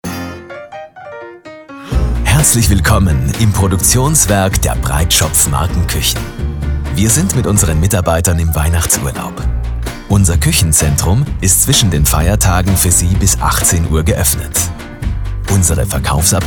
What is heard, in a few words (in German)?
Telefonanlage